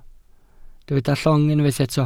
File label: "llong", welsh